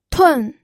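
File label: "tun4", Mandarin Chinese pinyin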